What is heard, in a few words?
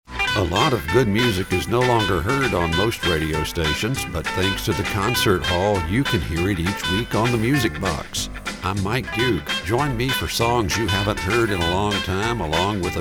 generic promo; WTWZ